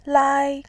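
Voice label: "lai1", Mandarin Chinese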